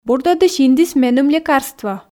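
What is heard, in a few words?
"бурдӧдысь индіс меным лекарство", Komi, female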